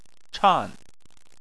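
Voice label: "chan" fourth tone, Chinese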